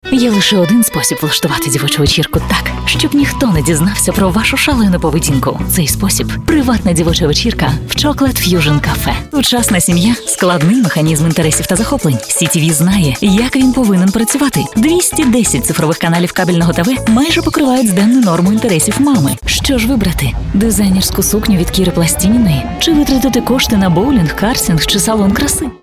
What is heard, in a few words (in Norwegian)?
ua, ukrainsk, kvinne